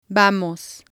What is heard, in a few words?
"vamos", Spanish